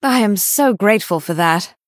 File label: Female Night Elf Thanks